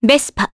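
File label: Vox Japanese; Scarlet; Vespa; kings raid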